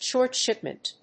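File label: "short shipment", English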